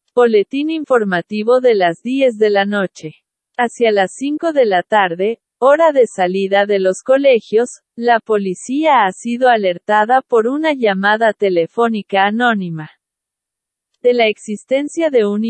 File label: Espagnol Phrases; Semaine; kidnapping